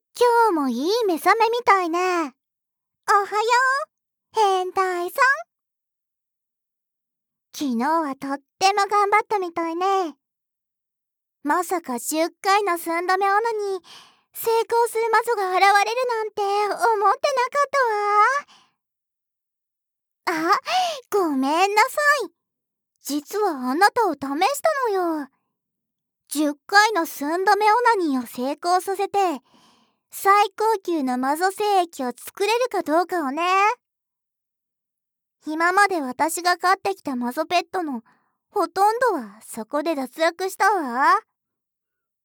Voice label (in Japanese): 朝からボイス, ASMR